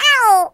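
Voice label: "Ouch", British; Default uk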